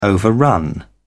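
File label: "overrun", English, British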